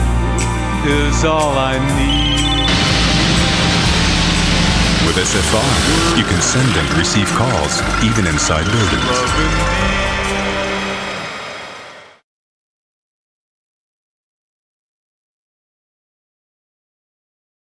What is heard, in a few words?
Voix Off Voice Over; SFR